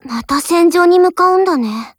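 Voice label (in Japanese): formation, Japanese; UMP45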